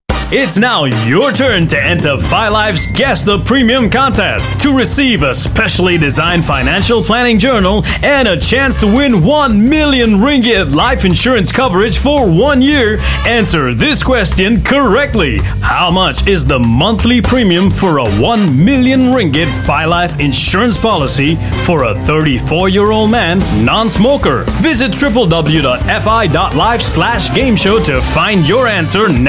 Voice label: Malay English; FiLife Financial Planning Journal